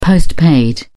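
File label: "post-paid", British English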